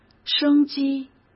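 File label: "生机", Mandarin Chinese; chinese flashcards; hsk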